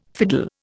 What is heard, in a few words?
"fiddle", Indian